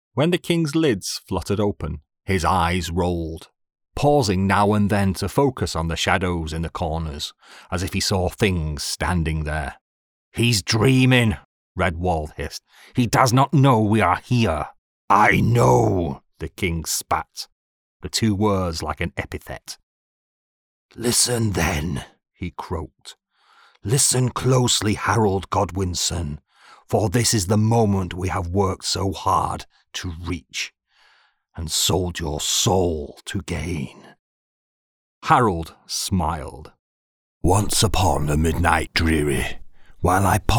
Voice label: Audiobook